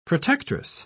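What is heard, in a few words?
"protectress", British English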